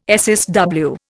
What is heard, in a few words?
"SSW", Indian